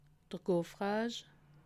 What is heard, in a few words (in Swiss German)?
"gaufrage", alsace